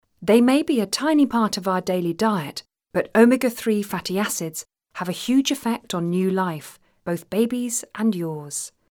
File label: Friendly Encouraging; Mumomega